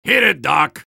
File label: soldier activate charge; vo; tf2